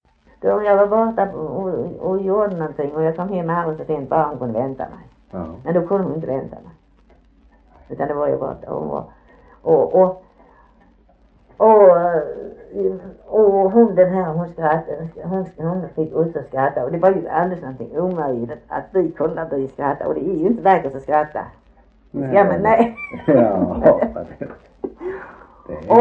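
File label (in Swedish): Harplinge Halland, dialekter; Folkminnen; Svenska sagor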